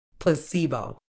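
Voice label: "placebo", medical English, British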